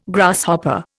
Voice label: "Grasshopper", Indian